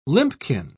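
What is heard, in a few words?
"limpkin", British English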